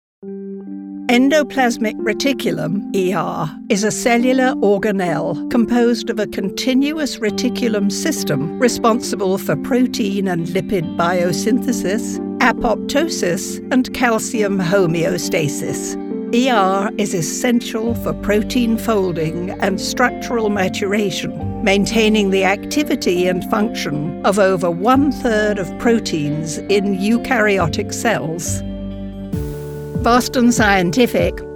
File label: Medical Narration